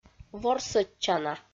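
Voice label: "ворсӧдчана", Komi, female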